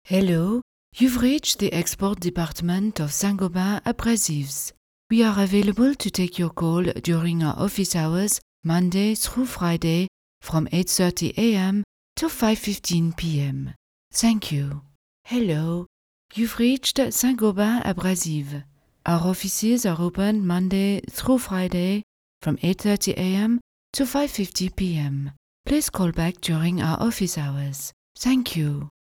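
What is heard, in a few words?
french accent, foreign accent voice overs; foreign languages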